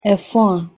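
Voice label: "efoa", Ewe, pronunciation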